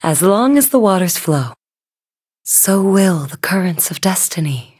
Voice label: touch, en; cookierunwiki